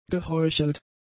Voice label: "geheuchelt", German; adjectives